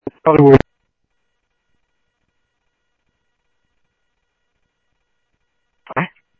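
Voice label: Sheriff East County, scanner audio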